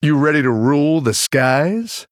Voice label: Male Human Shop To Combat

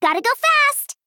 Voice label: Female Gnome

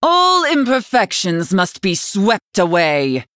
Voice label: Female Night Elf Start

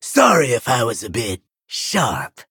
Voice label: Male Vulpera Emote Sorry

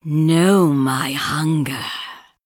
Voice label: Female Sanlayn Emote Greetings

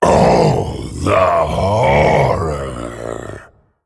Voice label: Male Dragon Oops

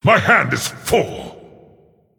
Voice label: Male Titan Forged; Emote ERROR HAND FULL

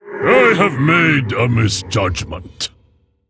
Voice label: Male Mogu Sorry

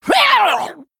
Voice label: Female Murloc Attack

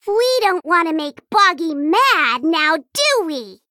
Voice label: Female Gnome Bark; Nesmy Necrofizzle

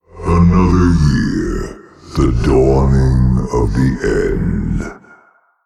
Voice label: Male Old God Happy New Year

